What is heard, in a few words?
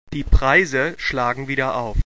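"aufschlagen", German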